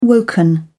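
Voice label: "woken", British English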